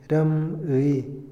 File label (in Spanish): "dirección", Otomí, hombre; Moderno; INALI